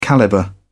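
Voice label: "calibre", English, British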